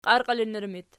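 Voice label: "qiarqiilinnur" locative, Archi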